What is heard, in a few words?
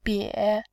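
"bie", Mandarin Chinese, tone 3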